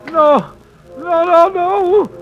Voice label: "no no no no" cartoon; Voice; expletives